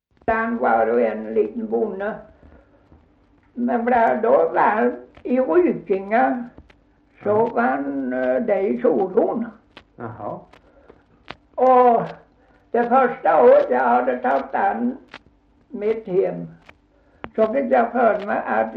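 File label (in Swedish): Gunnarp Halland, dialekter svenska sagor; Folkminnen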